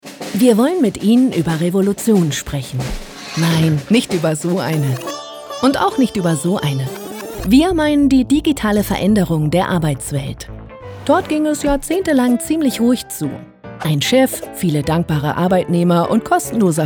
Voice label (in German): Erklaerfilm; Moin App